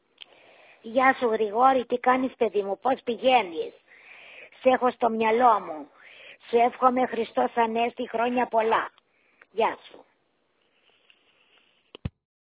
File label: voicemail